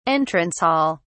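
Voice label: "entrance hall", English, British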